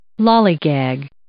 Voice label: "lollygag", English